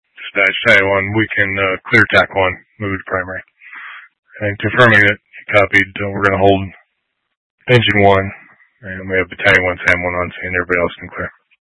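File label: Incident Tac 1, Scanner audio recording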